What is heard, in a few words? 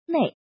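"nei", pinyin, fourth tone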